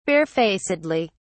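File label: "barefacedly", British English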